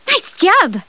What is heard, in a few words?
cheer; maeve